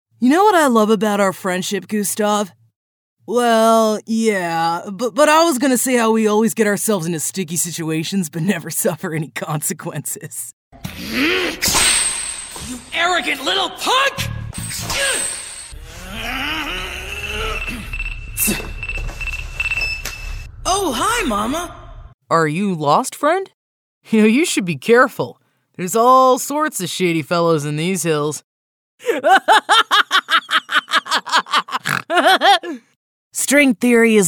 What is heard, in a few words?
Teen Boy Sampler Fun Cartoons Video Games Educational Bully Villain Dork; Nerd Cool kid